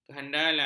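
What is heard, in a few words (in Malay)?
"kehandalan", Malay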